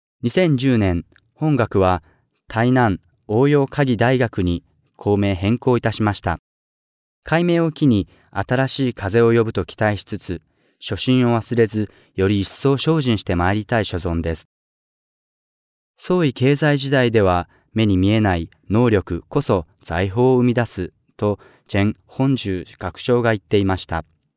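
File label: Japanese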